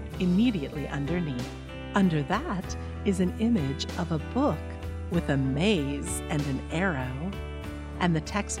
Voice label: narration